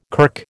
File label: "kirk", American